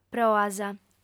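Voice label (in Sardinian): "proas", female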